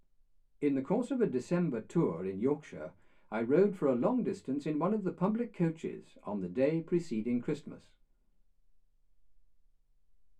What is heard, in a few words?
male speech english